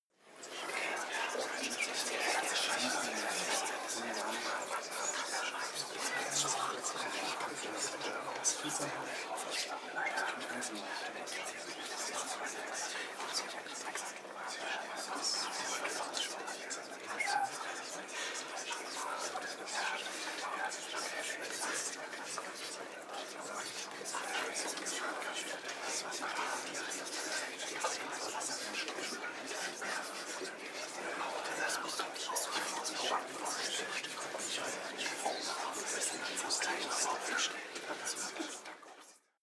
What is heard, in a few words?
whisper german neutral long